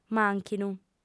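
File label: "mànchinu" Sardinian, female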